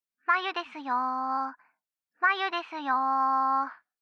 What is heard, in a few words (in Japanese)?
着信ボイス; まゆ; お姉さま、えっちなことに興味がございます